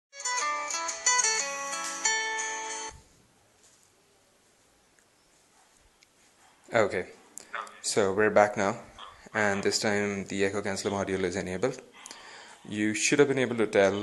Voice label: call with aec; pulseaudio